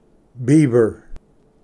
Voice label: "ᏙᏯ", Pronunciation; beaver